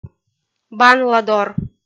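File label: "банладор", Komi, female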